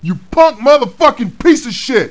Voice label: cuss, profanity, male; kingpin

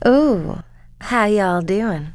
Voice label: how all, female; kingpin; yolanda

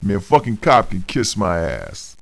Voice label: male neutral talk; kingpin loc

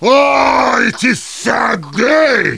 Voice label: heavy jeers; tf2

